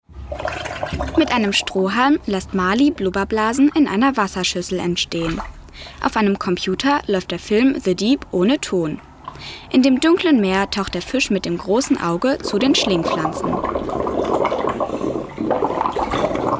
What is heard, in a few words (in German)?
Audiodeskription; Filmspielplatz